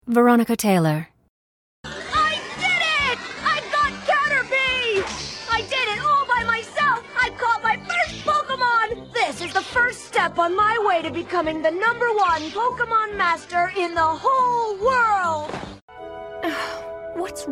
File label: animation; Innovative LA